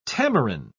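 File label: "tamarin", British English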